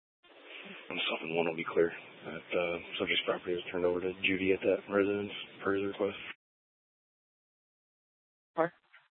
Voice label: Police Department Dispatch; Apache Junction; TOPAZ Regional Wireless Cooperative (TRWC); Mesa Simulcast